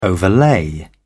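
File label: "overlay", British English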